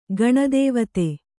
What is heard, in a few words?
"ಗಣದೇವತೆ", Kannada; gaṇa dēvate; noun